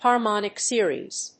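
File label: "harmonic series", English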